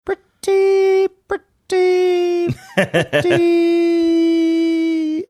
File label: saying pretty pretty pretty funny drawn out